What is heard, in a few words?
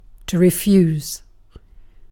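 "refuse", British English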